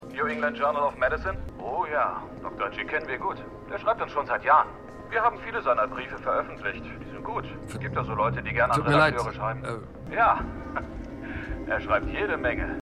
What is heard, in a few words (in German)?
Magazinredakteur, Stimmproben; Dopesick